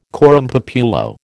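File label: "coram populo", American English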